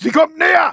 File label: fear; mohaa